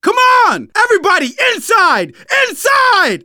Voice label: stay together inside, survivor voice; manager; Left 4 Dead 2; left4dead2 dlc2